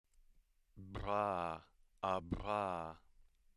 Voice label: voiced bilabial trill